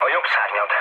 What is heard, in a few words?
right wing, mood low, speech hun; War Thunder